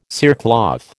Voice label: "cerecloth", American